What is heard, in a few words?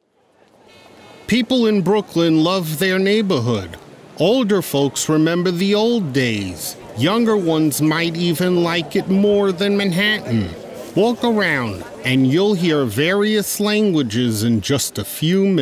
Documentary